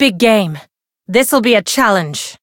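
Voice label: Voice encounter fear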